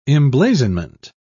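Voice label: "emblazonment", British English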